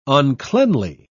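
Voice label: "uncleanly", British English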